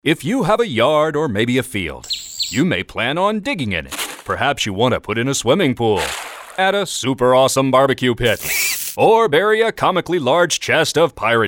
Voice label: radio english; call before you dig